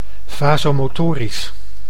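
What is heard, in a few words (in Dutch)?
"vasomotorisch", Dutch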